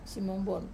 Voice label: "simonbuon" candy, Wamesa